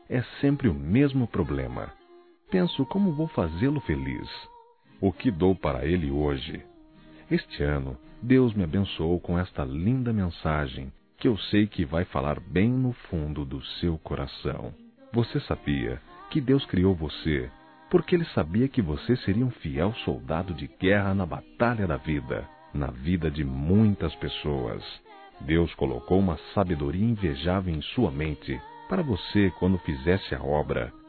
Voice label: Irmão Masculino, Telemensagens; ANIV. Evangelico

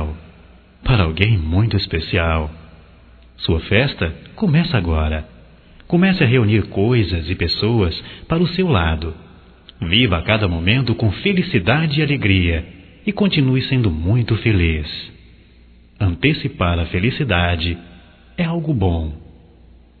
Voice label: Aniv geral energias positivas; Telemensagens 2017; Aniversarios

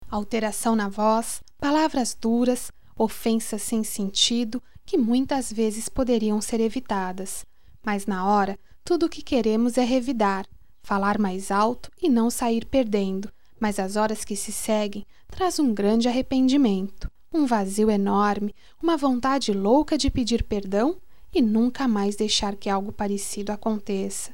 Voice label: Briguinha, Só Voz, fem; Telemensagens; Telemensagens 2017

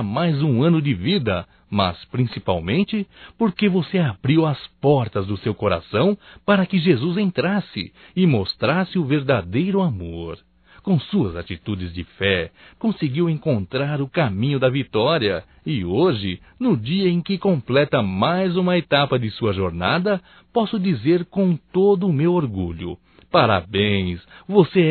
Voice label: Aniversário Irmão Evangélico Masculino; Aniversarios; Telemensagens 2017

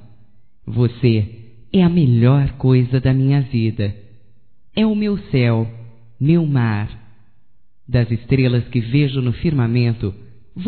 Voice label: ANIV ROMANTICO (F); Românticas

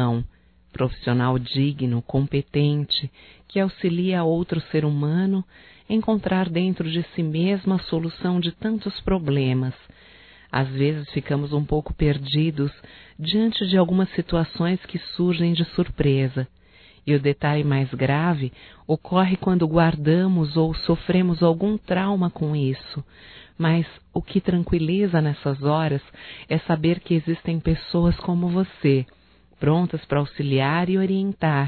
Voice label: HOMENAGEM AO PSICOLOGO, Só Voz, fem; Telemensagens; HOMENAGENS

